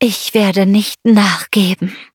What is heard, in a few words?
loading, de; cookie run